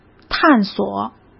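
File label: "探索", Mandarin Chinese; chinese flashcards; hsk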